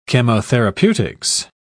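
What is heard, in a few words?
"chemotherapeutics", British English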